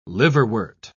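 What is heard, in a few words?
"liverwort", British English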